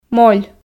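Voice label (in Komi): "моль", Komi, female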